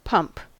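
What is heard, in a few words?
"pump", US English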